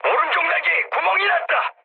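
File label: Korean right wing mood med, speech; War Thunder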